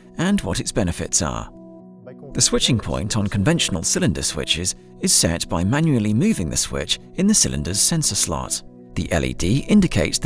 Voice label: product explainer video young UK male voice over artist; Festo SDBT MSX